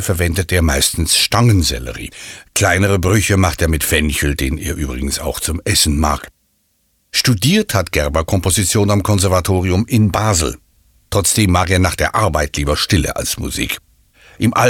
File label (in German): radiospot lang; woz; musik im krankenhaus